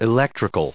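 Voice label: "electrical", English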